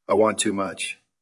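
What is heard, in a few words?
Voice Acting es Male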